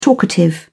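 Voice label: "talkative", British English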